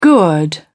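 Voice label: "gourd", English, British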